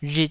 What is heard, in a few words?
"rhit2", taiwanese